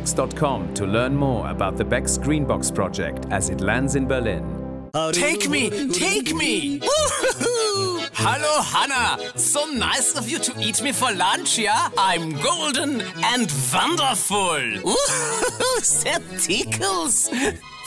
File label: english with german accent